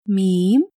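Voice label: "miim", Arabic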